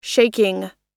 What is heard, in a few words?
"shaking", us